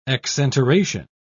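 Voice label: "exenteration", British English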